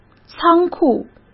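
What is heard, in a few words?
"仓库", Mandarin Chinese; chinese-flashcards; hsk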